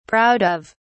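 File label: "proud of", British English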